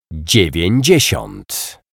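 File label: "dziewiecdziesiat", Polish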